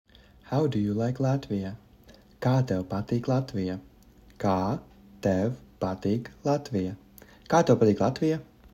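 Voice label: "How do you like Latvia", Latvian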